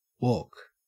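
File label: "walk", Australian English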